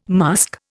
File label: "musk", Indian